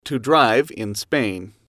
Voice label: "to drive Spain", English